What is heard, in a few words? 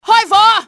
open fire, cantonese; XBOX; enemy